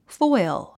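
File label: "foil", English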